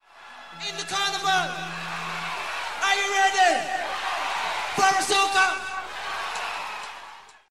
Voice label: Vocals ('are you ready')